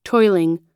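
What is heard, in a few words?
"toiling", US English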